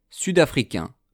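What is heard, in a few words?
"sud-africains", French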